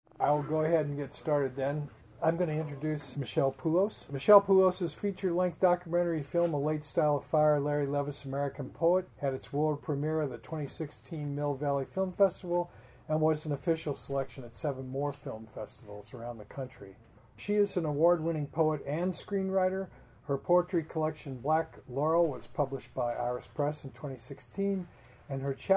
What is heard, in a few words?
reading